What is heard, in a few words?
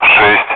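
Speech, Russian, air traffic control; Digits; DCS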